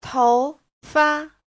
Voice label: "tou2fa5", Chinese; 头发; tóc

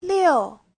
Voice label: "liu4", chinese

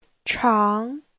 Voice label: "chang2", Chinese

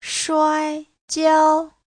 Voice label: "shuai1jiao1", Chinese; 摔跤; té, ngã

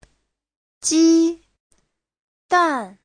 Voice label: "ji1 dan4", chinese

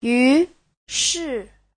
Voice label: "yu2 shi4", Chinese

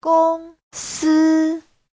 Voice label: "gong1 si1", chinese

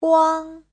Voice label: "guang1", chinese; Giáo trình Hán ngữ

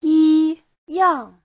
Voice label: "yi1 yang4", Chinese

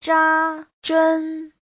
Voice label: "zha1zhen1", chinese; 扎针; châm kim

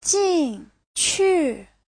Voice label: "jin4qu4", chinese

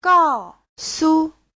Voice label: "gao4 su5", chinese

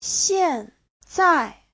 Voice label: "xian4zai4", Chinese; 现在

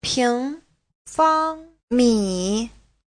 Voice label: "ping2fang1mi3", Chinese; 平方米 : (lượng từ) mét vuông; Giáo trình Hán ngữ

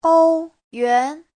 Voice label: "ou1yuan2", chinese; Giáo trình Hán ngữ

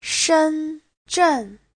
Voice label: "shen1zhen4", Chinese; 深圳; Thẩm Quyến

